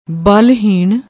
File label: "balheen", Punjabi